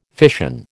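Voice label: "fission", American English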